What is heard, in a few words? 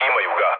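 Serbian aircraft destroyed mood low, speech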